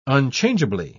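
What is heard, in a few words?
"unchangeably", British English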